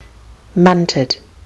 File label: "munted", kiwi english